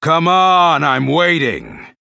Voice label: Male Human Idle; Cornelius; Cariel